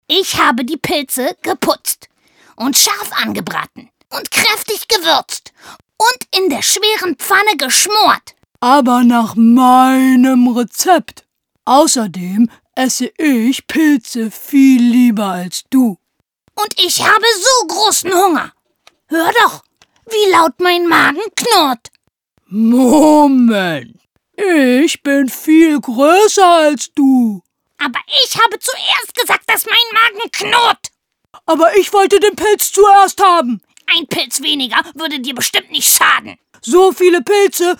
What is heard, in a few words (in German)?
Hoerbuch Comic voices